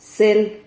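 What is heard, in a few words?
"cel", Spanish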